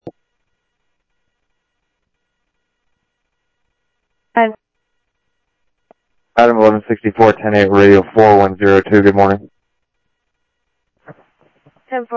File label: Security, scanner audio